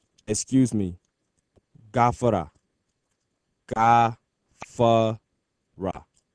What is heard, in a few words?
Hausa Excuse